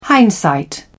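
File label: "hindsight", British English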